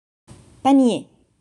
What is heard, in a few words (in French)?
"paniers", French